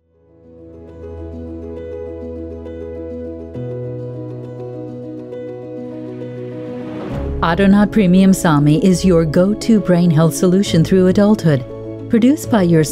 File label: With Music